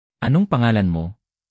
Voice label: "anong pangalan mo", tagalog; tagalog phrases